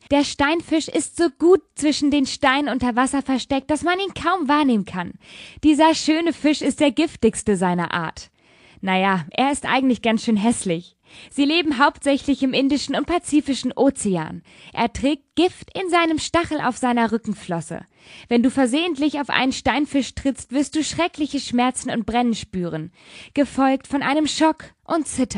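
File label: German, female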